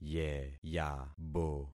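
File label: "yeyabo", concatenated syllables; Affixing experiment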